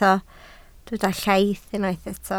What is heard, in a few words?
"llaith", Welsh